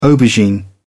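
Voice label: "aubergine", British English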